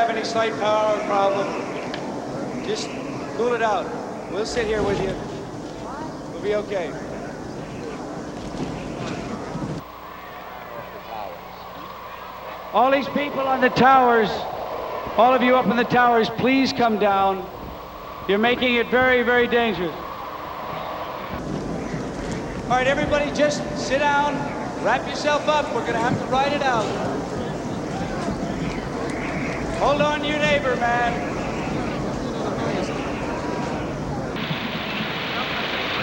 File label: Announcement No Rain; 1969 - Woodstock